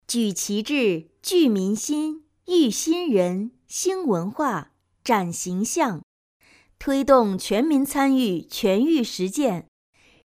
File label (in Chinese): 旁白女声